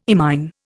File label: "imine", Indian